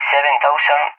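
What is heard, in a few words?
"70", English, Spain; Numbers; DCS; Allied Flight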